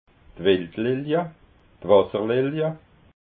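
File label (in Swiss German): "wildlilia", Alsatian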